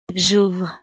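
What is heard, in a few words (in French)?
"j ouvre", French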